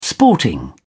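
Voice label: "sporting", British English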